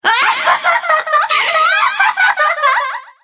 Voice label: Enemy Voice Damaged Under Attack; Abyssal Heavy Cruiser Water Princess